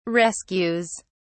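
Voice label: "rescues", British English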